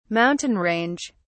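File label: "mountain range", British English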